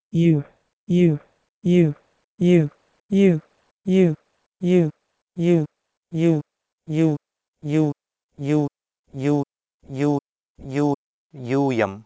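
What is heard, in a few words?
Proto-Indo-European juHe to Sanskrit yuuyam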